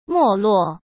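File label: "没落", Mandarin Chinese; chinese flashcards; hsk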